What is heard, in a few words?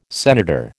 "senator", American